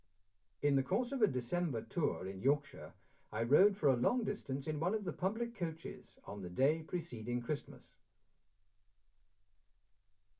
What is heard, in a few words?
male speech english